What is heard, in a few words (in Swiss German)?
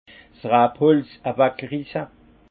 "rabholz", alsace; vin; échalasser